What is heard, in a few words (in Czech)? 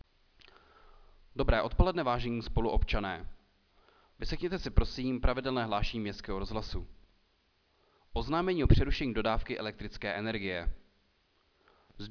pravidelné hlášení městského rozhlasu